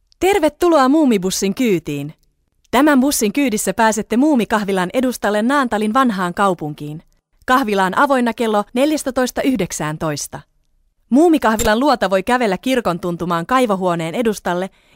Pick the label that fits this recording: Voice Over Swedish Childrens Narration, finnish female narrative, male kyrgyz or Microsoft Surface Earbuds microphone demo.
finnish female narrative